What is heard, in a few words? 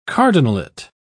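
"cardinalate", British English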